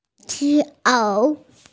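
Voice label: "pi2 ao3", Mandarin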